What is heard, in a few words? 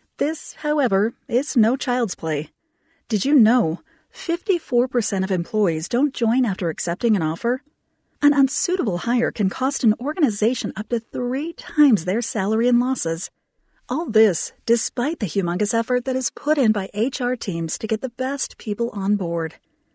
HR eLearning